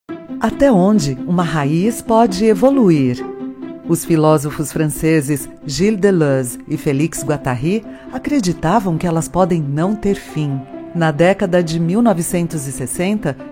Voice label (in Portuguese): Repertório Institucional, locutora